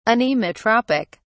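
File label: "anemotropic", British English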